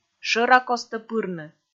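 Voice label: "шӧракостӧ пырны", Komi, female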